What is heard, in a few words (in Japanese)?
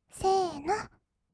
せーの, kawaii voice; 音ゲー